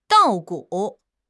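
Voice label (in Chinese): "稻谷", Chinese; HSK6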